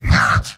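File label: "no", robot; heavy; mvm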